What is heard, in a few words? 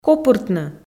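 "копыртны", Komi, female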